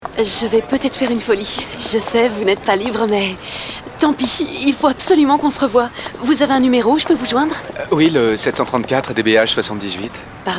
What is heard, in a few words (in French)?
Mini comédie; austin